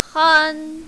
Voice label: "han1", mandarin, female; 酣; xìng hān; 興; син4